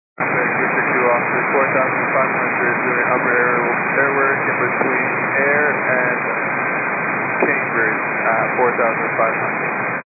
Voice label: 122 925MHz, scanner radio